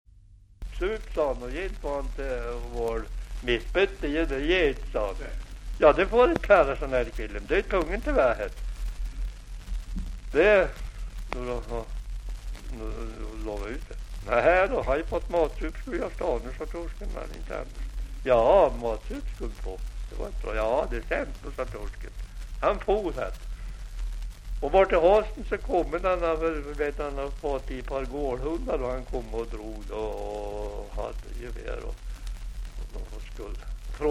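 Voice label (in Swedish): Aspås Jämtland, svenska sagor dialekter; Folkminnen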